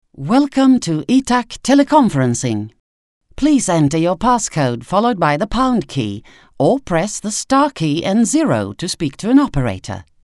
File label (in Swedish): brittisk kvinna